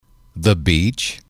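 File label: "beach", English, male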